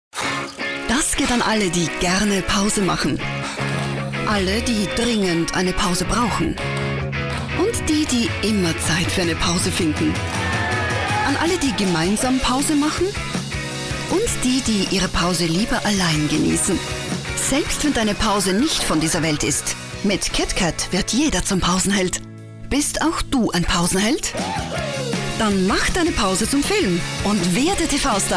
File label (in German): Frau